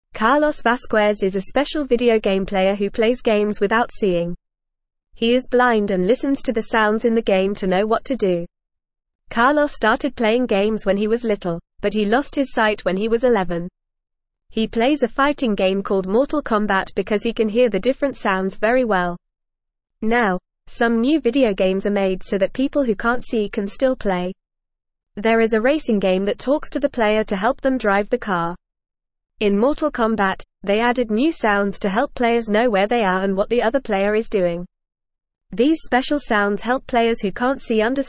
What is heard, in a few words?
Lower Intermediate FAST Reading, English; English Newsroom